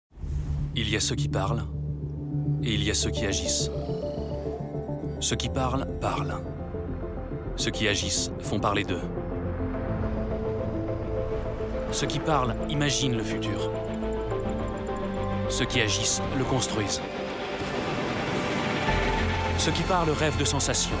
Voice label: Spot TV voiture électrique; Nissan LEAF

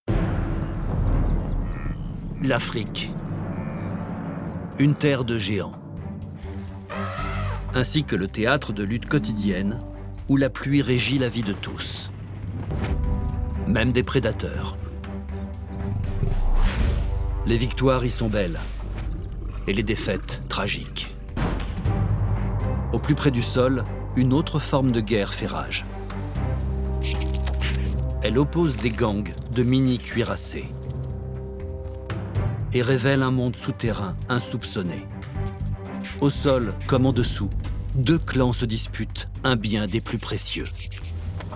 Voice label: narration intro bousiers

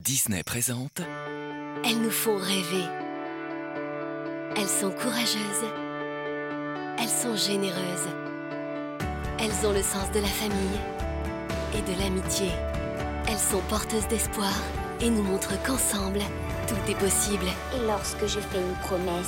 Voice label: légèrement dans le souffle entrainante positive; LES PRINCESSES DYSNEY

